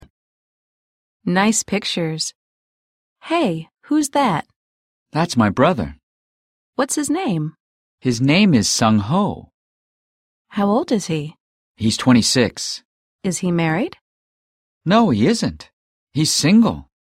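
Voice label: family conversation, English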